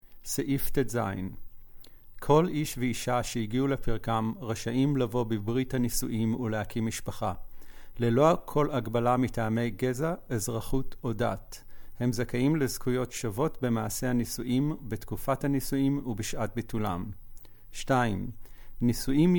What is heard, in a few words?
Hebrew